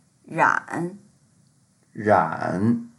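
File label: "ran3", Mandarin Chinese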